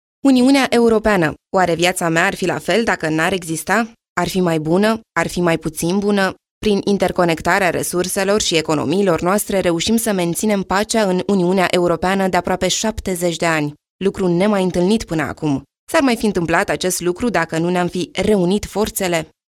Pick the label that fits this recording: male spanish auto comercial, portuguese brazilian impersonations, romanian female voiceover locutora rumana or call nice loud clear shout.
romanian female voiceover locutora rumana